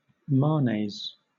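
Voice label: "manes", British English; pronunciations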